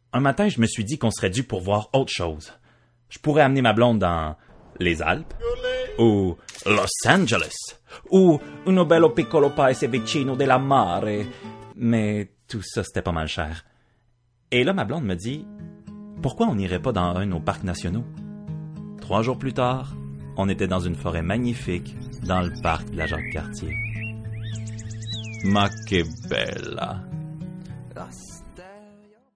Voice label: voix naturelle ton suave publicitaire; Parc Nationaux

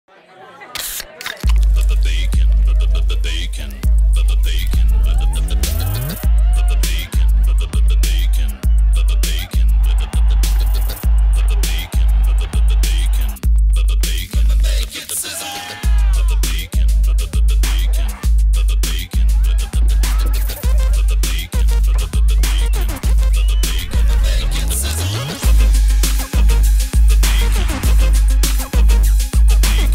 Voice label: Voix très grave rap Pub chant; Clamato Bacon

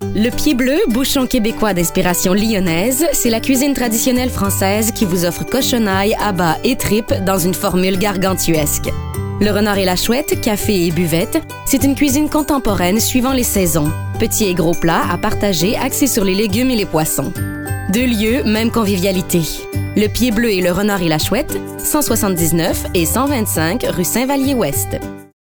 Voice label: Souriante amicale, voix; Le pied bleu

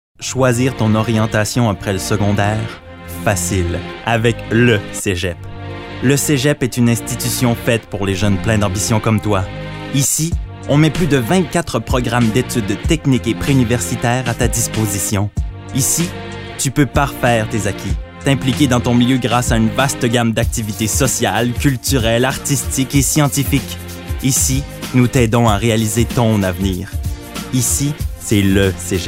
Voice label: voix posée et invitante; Le cegep

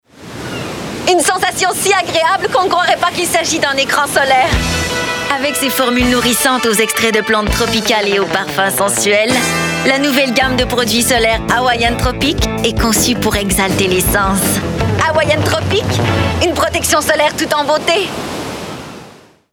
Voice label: voix publicitaire dynamique et entraînante, Jeune adulte - Affirmée - Français normatif; Hawaiian Tropic

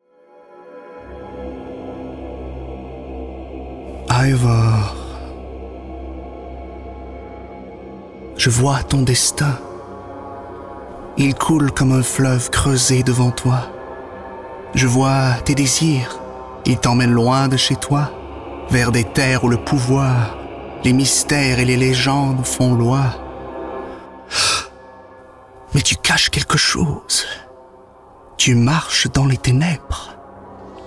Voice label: Fr normatif Voix de personnage ténébreuse mystérieuse; Assassins Creed

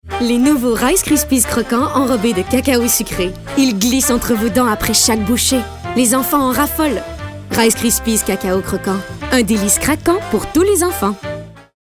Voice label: Souriante energique; Rice Krispies

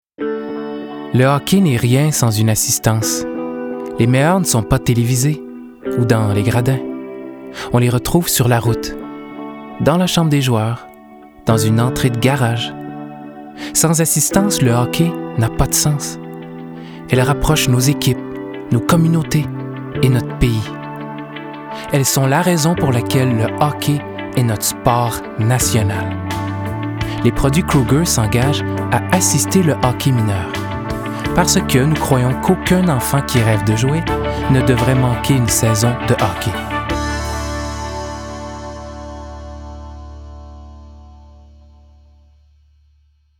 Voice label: Publicite Pose Inspirant Qc soutenu; Kruger

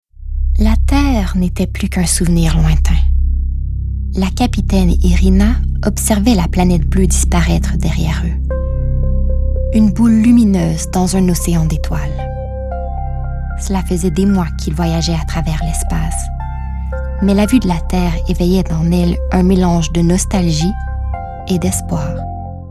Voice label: Narration documentaire Pique la curiosi; La decouverte des planetes; Quebeco

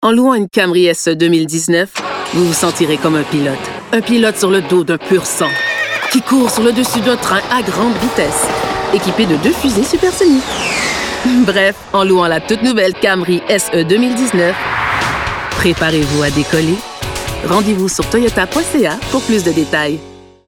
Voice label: Fictive Promotionnelle Affirmée Avec sourire, Affirmée - Souriante - Québécois soutenu; Toyota

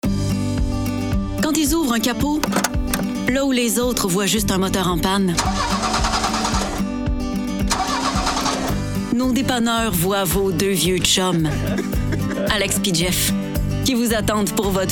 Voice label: Rassurante amicale avec sourire, Amicale - Souriante - Québécois naturel; CAA Québec

